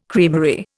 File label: "creamery", Indian